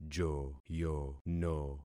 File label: "joyono", concat syllables; Affixing experiment